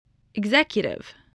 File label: "executive", voice, english